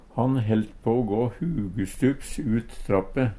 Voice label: hugustups, Numedalsmål; Eksempel på bruk Han helt på å gå hugustups ut trappe.

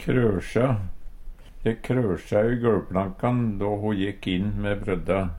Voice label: "krøsja" Numedalsmål; De krøsja i gøLvplankan då ho jekk inn me brødda; Verb; Karakteristikk

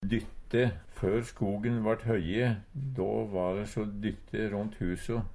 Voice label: dytte, Numedalsmål; Før skogen vart højje då va de so dyttint ront huso.; Adjektiv

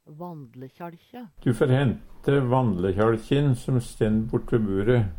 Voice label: vandlekjalkje, Numedalsmål; Du fær hente vandlekjæLkjin som stend bortve bure.; Reiskap og arbeidsutstyr

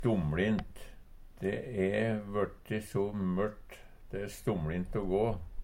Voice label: stomlint, Numedalsmål; vanskeleg å gå; De e vørt so mørt, de e stomLint o gå.; Adverb

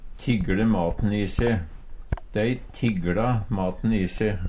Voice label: tiggle m i se, Numedalsmål; eta lite, spare på maten; Dei tigLa maten i se.; Uttrykk

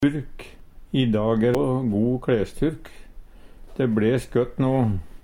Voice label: "turk", Numedalsmål; I dag e de go klesturk, de bles gødt no.; Vêr og føre

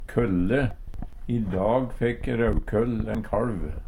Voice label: "kølle", Numedalsmål; Substantiv hokjønn; Dyreriket; Jordbruk og seterbruk; I dag fekk Raukøll ein kalv.

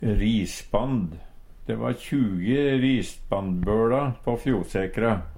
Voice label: risband, Numedalsmål; De va 20 risbandbøLa på Fjosekra.; Substantiv inkjekjønn; Jordbruk og seterbruk

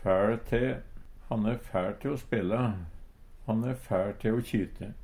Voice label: "fæL te", Numedalsmål; Eksempel på bruk Han e fæL te spela. Han e fæL te kjyte.

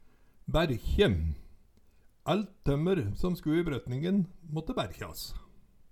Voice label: baerkje, Numedalsmål; Eksempel på bruk Ælt tømmer som sku i brøtningen måtte bærkjas; Kjelde: Bygdearkivet Jan H. Lislien

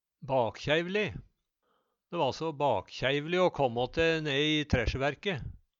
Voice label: bak kjaeivli, Numedalsmål; Eksempel på bruk De va så bak-kjeiveli å kåmmå te ne-i tresjeværke

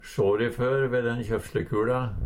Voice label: kjofslekul, Numedalsmål; Substantiv hokjønn; Vêr og føre; kjøve (Nore)

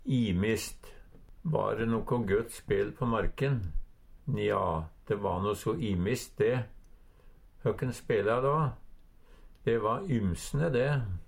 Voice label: "imist", Numedalsmål; Eksempel på bruk Va de noko gøtt spel på marken? Nja, de va no so imist de. Høkken spela, då? De va ymsne de.

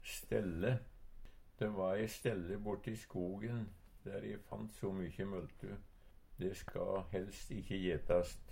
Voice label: stelle, Numedalsmål; Eksempel på bruk De va ei stelle borti skogen der e fant so mykjy møltu.; De ska helst ikkje jetast.

